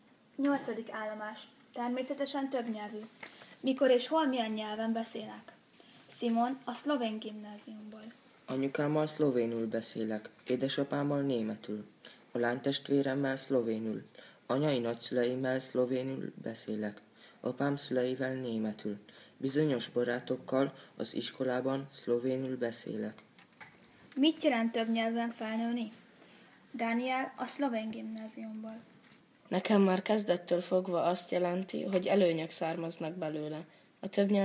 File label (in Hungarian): magyar